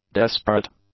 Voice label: "desperate", english; adjectives